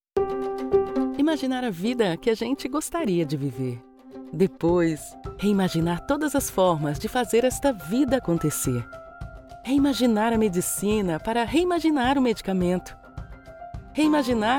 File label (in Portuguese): locucao; novartis 90 anos